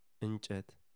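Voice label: "bois", Amharic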